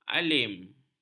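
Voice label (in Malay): "alim", Malay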